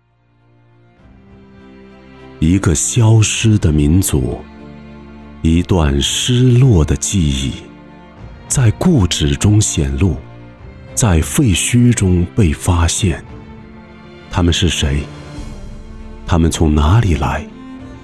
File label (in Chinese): 男 纪录片 历史; 黑水城